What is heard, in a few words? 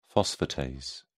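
"phosphatase", British English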